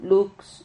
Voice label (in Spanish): "lux", Silabas